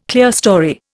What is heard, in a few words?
"clerestory", Indian